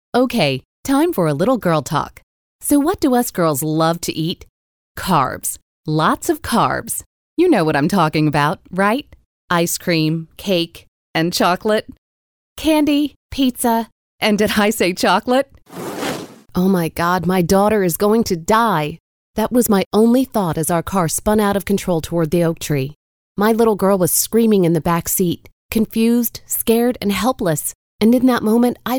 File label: VSL female